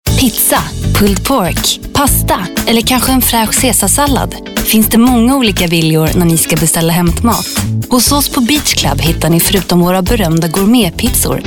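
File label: swedish female commercial; voice demos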